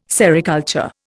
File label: "sericulture", Indian